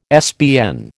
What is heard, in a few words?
"SBN", American